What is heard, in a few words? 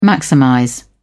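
"maximize", British English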